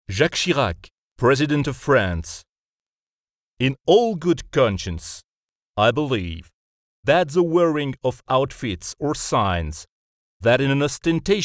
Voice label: Medium warm narration English French Accent; J Chirac